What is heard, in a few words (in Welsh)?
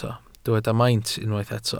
"maint" Welsh